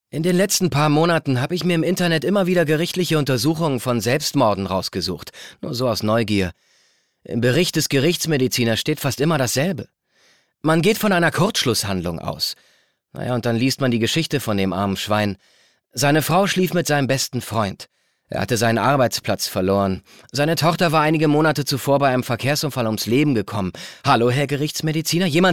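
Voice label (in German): erzählung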